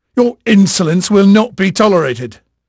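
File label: English male, voice; Pirates Of The Caribbean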